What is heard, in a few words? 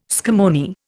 "scammony", Indian